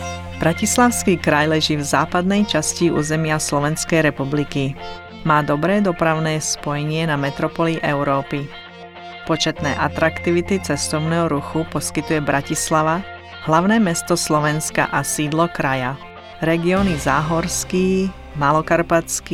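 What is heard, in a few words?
slovak female narration; voice demos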